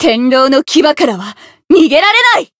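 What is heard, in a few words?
chant, Japanese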